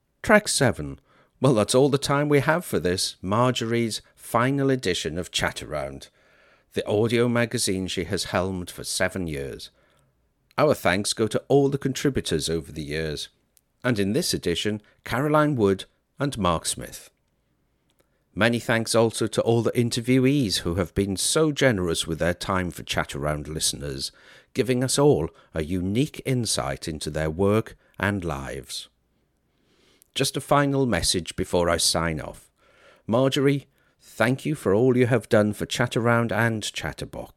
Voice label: Closing Announcement